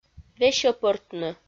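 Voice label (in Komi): "весьӧпӧртны", Komi, female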